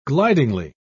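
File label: "glidingly", British English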